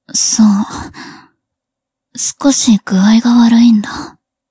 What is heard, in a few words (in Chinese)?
互动 不耐烦的反馈; 灵魂潮汐克拉丽斯技能